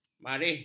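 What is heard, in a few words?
"marikh", Malay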